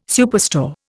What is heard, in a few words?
"superstore", Indian